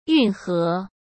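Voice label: "运河", Mandarin Chinese; chinese flashcards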